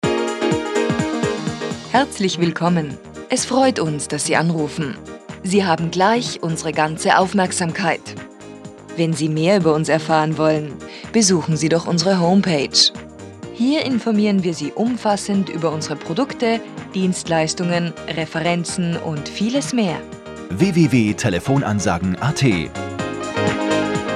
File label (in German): Sprecherin; Telefonansage